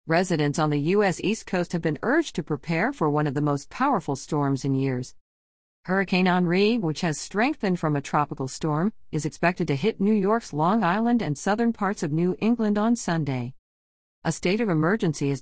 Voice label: news example